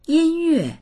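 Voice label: "yin1yue4", Mandarin Chinese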